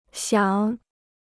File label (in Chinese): "想", Chinese